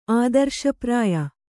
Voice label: "ಆದರ್ಶಪ್ರಾಯ", Kannada